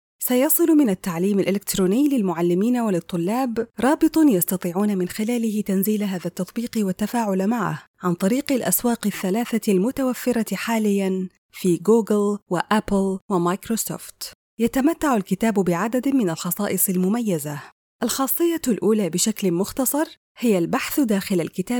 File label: arabic e-learning